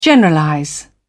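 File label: "generalize", British English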